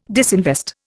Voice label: "disinvest", Indian